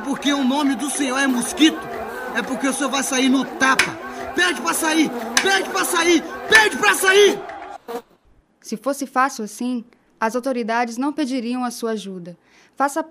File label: spot dengue; bope pede pra sair